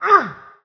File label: german; Spec Ops 2